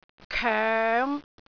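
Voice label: "koeng5", Cantonese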